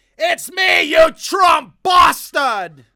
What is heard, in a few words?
Dwarf Friendly Fire